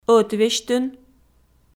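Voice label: "ӧтвесьтын" Komi, female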